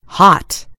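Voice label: "hot", American English; gcompris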